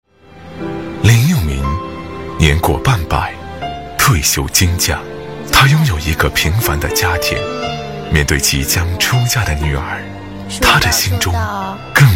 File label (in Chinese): 男国 其他 微电影 内心独白; 时尚